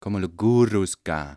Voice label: mimic Talk; Singles; French Version